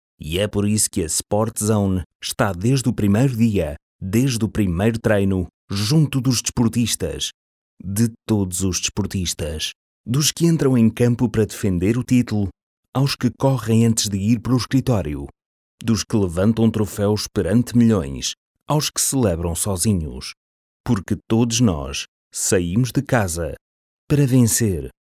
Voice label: male portuguese voice over talent narrative, portugal